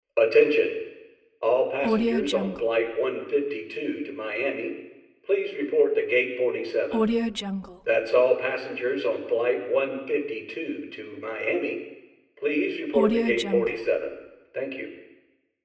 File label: airport terminal announcement